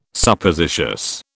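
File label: "Suppositious", British English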